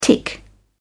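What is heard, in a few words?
"tick over", British English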